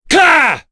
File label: Ricardo Vox Attack, voices heroes en; kingsraid-audio